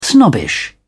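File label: "snobbish", British English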